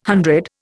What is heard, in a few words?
"hundred", Indian